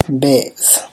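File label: Tlacochahuaya Zapotec; tiger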